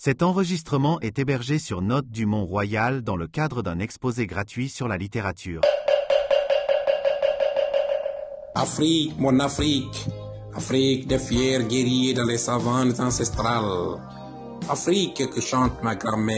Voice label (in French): lecture partielle; Coups de pilon de David Diop